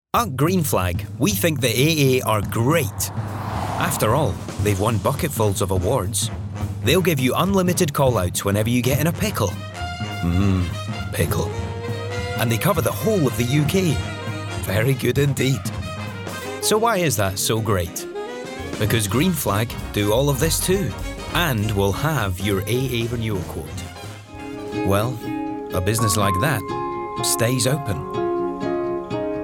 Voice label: Commercial